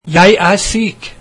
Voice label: I am sick, norwegian

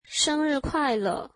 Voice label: Happy birthday, mandarin

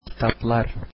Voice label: books, Turkish

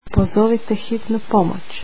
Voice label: Call the ambulance, croatian

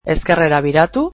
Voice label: Turn left, basque

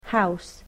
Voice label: "house", English

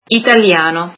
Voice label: "Italian", italian

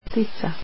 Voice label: "bird", croatian

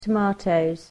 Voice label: "tomatoes", English

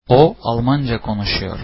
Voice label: he speaks German, Turkish

